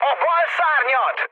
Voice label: left wing, speech hun; War Thunder